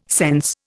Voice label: "sense", Indian